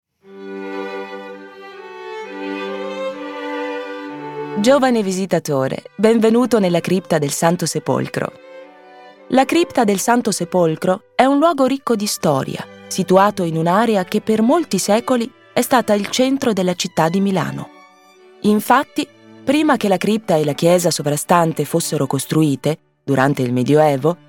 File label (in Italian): Audioguida ITA